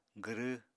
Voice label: "gwrw", Apatani